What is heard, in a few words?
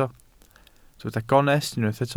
"gonest", Welsh